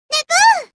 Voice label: excited; nepu

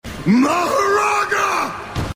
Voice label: yelling mahoraga; sukuna

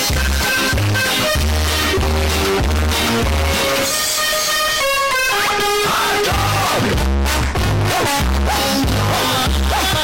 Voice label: loud; mickey mouse

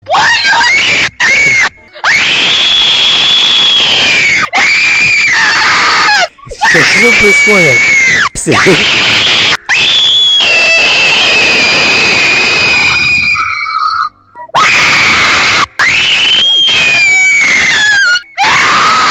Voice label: russian kid

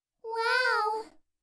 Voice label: wow anime wow

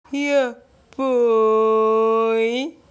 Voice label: yeah boy real voice

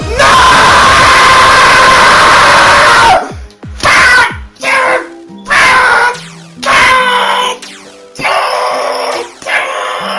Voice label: rage nooooo

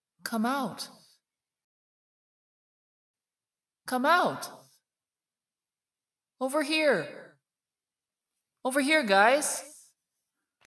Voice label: spooky voice

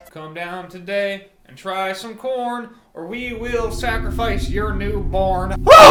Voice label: corn commercial

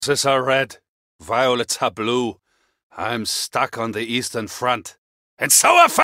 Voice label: funny voice clips; coh 2

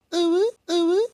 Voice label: fear uwu

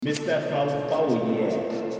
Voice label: yeah echo

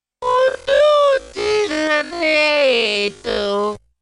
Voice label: slow gibberish

